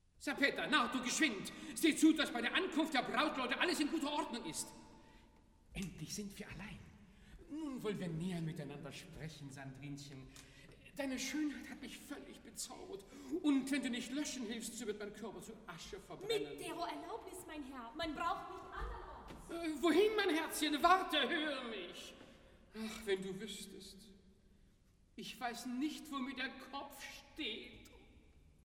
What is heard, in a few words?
Dialog; Serpetta, Nardo, Geschwind; Die Gärtnerin aus Liebe